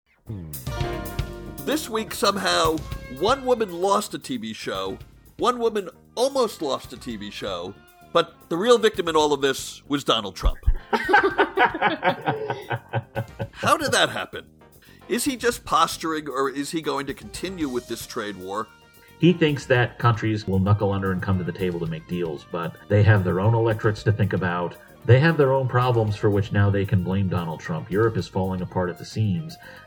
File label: promo w music